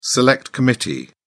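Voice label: "select committee", British English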